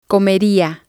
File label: "comeria", spanish